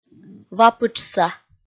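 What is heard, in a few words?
"вапытшса", Komi, female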